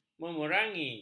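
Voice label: "memerangi", Malay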